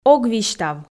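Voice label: "ог висьтав", Komi, female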